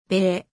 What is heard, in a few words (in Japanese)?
"べ", 日本語; 基礎日語五十音聽力